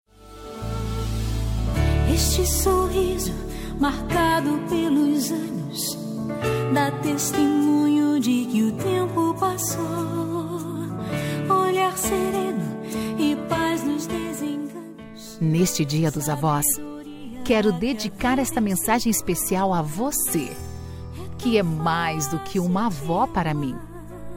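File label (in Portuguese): dia avó female gospel; dia dos avos para avo voz feminina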